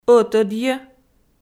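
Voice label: "ӧтӧдйӧ", Komi, female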